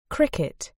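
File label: "cricket", British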